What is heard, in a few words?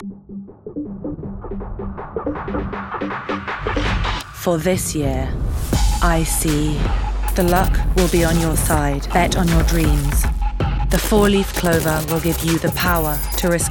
Diesel, UK